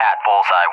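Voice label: "at bullseye", English, USA; DCS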